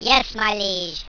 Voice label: yes my liege, squeaky

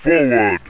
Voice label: "forward", rumbly; tactics

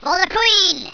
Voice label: "for the queen", squeaky

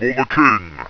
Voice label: "for the king", rumbly

